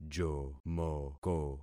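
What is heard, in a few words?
"jomoko", concatenated syllables; Affixing experiment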